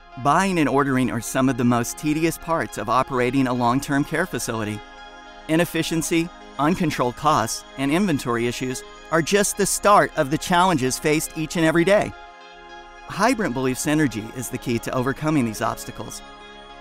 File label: Narration